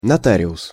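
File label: "notarius", Russian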